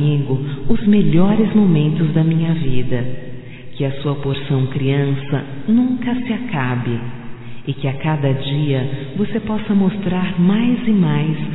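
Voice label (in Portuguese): voz - Aniversário amigo como irmão, fem; Telemensagens 2017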